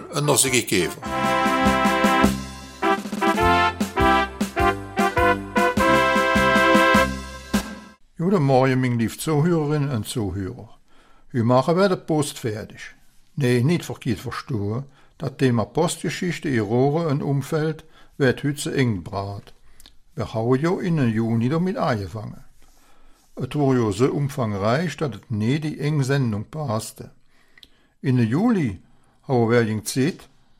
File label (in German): Raerener Mundart